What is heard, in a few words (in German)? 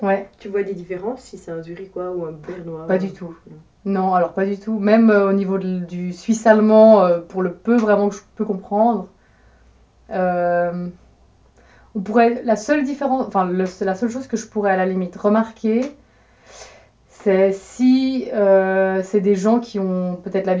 hochdeutsch; que signifie le mot dialecte en suisse